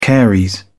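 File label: "caries", British English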